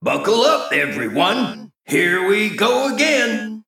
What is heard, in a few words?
Male Mech Shop To Combat